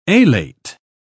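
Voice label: "alate", British English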